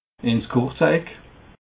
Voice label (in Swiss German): "kurze eck", alsace